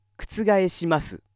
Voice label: male